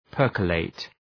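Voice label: "percolate", English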